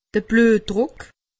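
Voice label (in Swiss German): "bluetdruck", Alsatian; medecine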